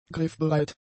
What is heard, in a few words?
"griffbereit", German; adjectives